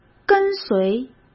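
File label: "跟随", Mandarin Chinese; hsk; chinese flashcards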